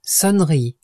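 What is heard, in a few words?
"sonnerie", French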